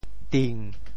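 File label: "ting7", Teochew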